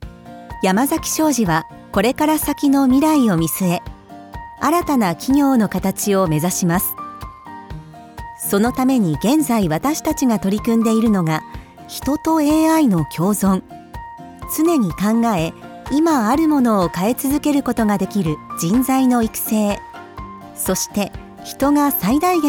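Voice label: japanese corporate videos, female Japanese; voice actor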